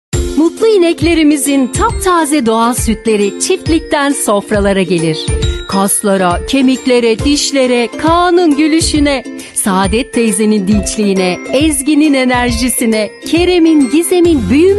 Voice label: reklam; sutas

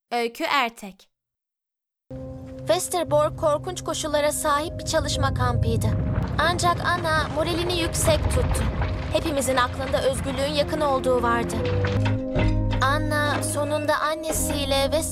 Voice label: dublaj

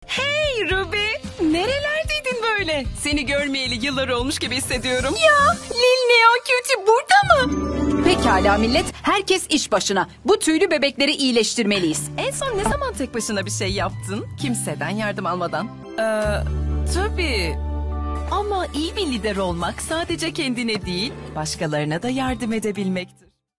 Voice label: dublaj; lol ve rainbow

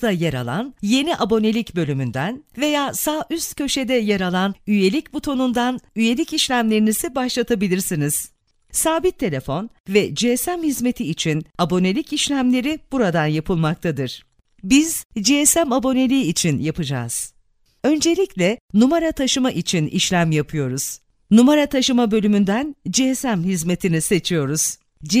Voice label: operator bilgilendirme; net gsm

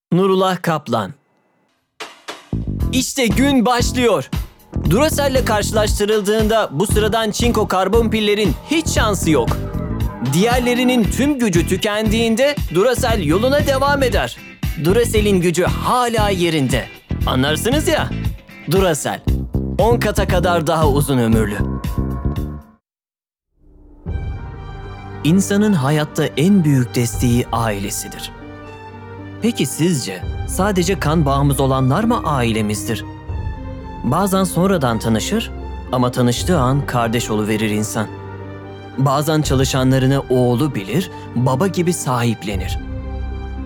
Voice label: reklam

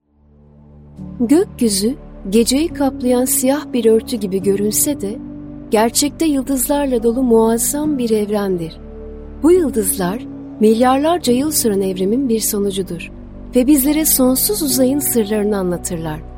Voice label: belgesel

